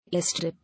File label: "Air Strip", English